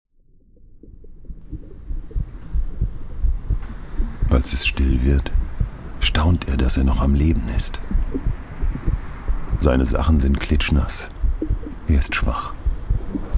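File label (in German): Hörspiel; subutex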